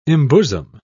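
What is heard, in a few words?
"embosom", British English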